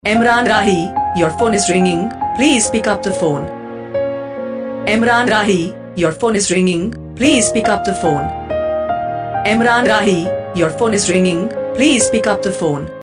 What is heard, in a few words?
please pickup phone saying, Ringtones; Your Name Ringtones A To Z Names